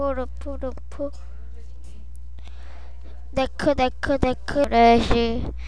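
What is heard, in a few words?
"neck", spoken word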